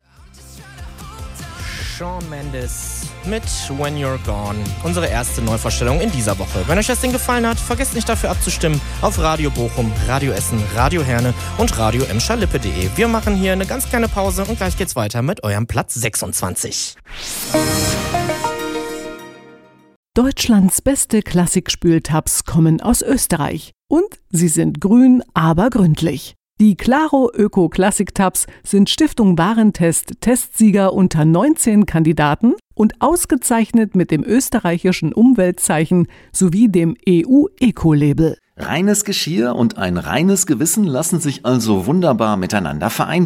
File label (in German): Infomercial; spueltaps claro